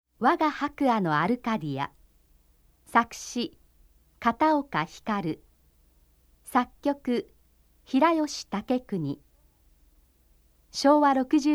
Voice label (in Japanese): ナレーション